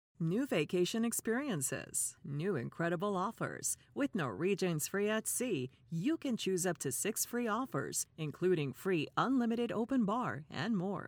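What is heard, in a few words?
English US Explainer Video Voice Overs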